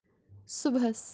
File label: "subhas" tomorrow in the morning kashmiri